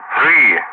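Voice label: "3", loud, English; Ka-50; Wingman; DCS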